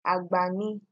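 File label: "agbani", Nigerian Pidgin, female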